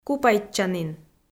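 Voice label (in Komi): "купайтччанін", Komi-Zyrian, female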